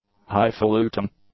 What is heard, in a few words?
"high-faluting", English; adjectives